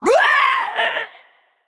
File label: Female Naga Death